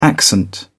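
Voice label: "accent", English, British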